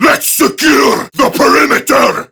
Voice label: Attack Prime Grineer Male; Tau; Battle Barks

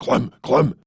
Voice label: Banter; Warframe; Clem; Dialog Barks Battle

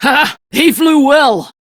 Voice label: Crew Gun Kill Fighter, Steel Meridian Female; Dialog; en; Warframe; RailjackCrew

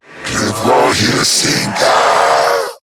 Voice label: Player Spotted, en; Zariman Wraith

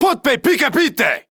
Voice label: Attack Male, Battle Barks; en; Modular Corpus

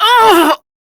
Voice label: Pain, Female; Dialog; en; Warframe; Railjack Crew; Steel Meridian

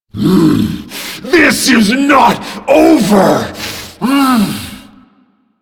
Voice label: Death, Battle Barks

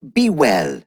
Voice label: Ost Male en; Cetus

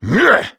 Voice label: Angry; Warframe; Tyl Regor Boss; Efforts

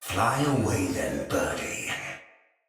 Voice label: Sight Lost Shout Thawtide Hunter; Barks Battle

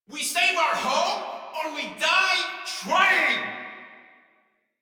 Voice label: Rally Cry; Warframe; The Index Quest; Colonists; Grent

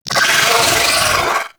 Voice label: Vocal Death; Enemies; Vocals; Warframe; Amalgam Moa Satyr

